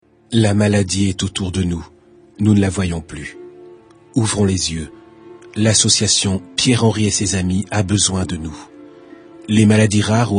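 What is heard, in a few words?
French narration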